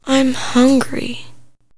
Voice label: I'm hungry no echo